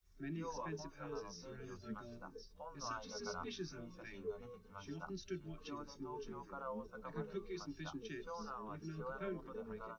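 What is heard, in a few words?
male, live recording; SiSEC11; underdetermined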